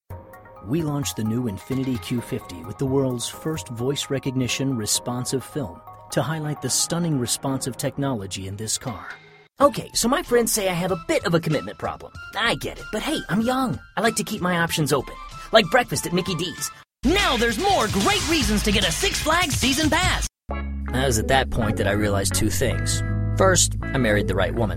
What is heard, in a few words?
Commercial VO